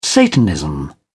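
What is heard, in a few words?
"satanism", British English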